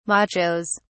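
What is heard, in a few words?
"machos", British English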